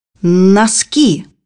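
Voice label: "socks", Russian; bukvar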